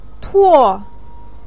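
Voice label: "tuo4" Chinese syllable, pinyin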